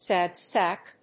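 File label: "sad sack", English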